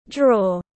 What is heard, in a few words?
"draw", school instructions